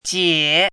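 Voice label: "jie" third tone, pinyin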